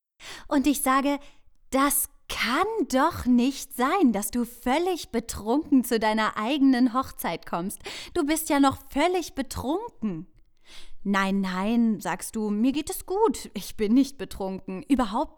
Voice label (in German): Ausschnitt Hoerbuch